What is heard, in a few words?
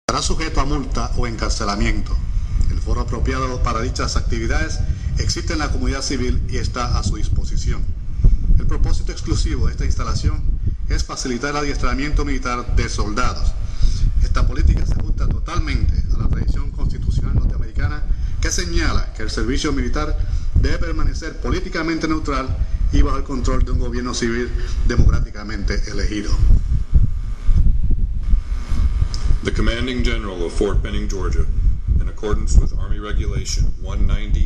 bilingual warning recording; fort benning